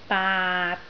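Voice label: "baat6", Cantonese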